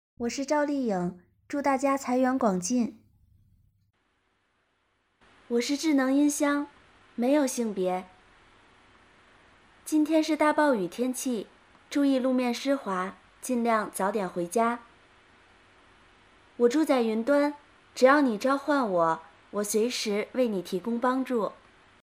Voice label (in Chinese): 女; 模仿赵丽颖